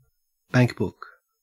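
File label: "bankbook", Australian English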